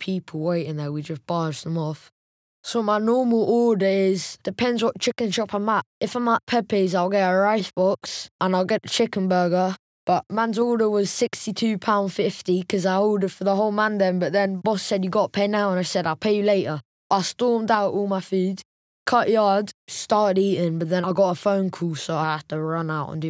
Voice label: Warm, youthful and refreshingly natural, with a steady delivery that feels real and re; Chicken Shop